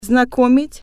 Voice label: introduce, russian

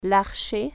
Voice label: "archer", French

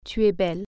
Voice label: you are beautiful female, french

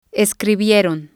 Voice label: "escribieron", spanish

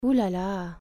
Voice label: "ooh la la", french

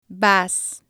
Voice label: "vas", Spanish

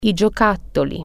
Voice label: toys, italian

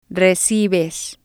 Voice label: "recibes", spanish

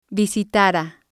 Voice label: "visitara", Spanish

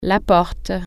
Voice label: gate, french

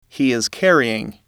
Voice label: "he is carrying", English

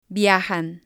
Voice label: "viajan", Spanish

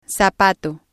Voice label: "zapato", Spanish

